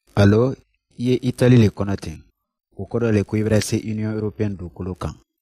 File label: Mandinka